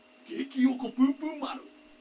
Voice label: angry